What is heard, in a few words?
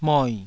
"moi3", taiwanese syllables